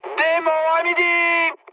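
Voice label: enemy detected, mood high, French; War Thunder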